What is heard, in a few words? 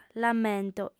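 "lamento", Sardinian, female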